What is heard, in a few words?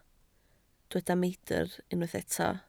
"meidr", Welsh